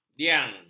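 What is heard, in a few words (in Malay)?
"diang", Malay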